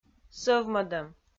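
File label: "сӧвмӧдӧм", Komi, female; audio dict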